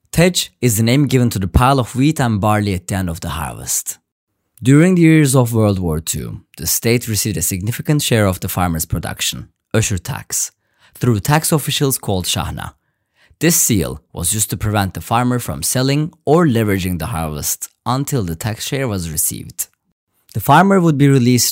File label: english